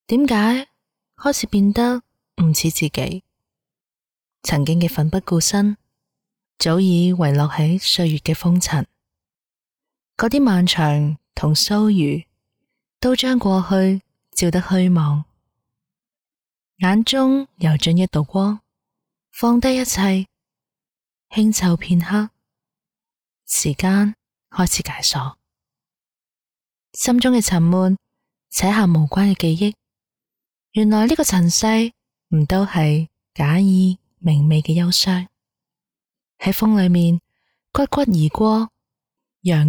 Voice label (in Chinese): 旁白独白日本风清冷风格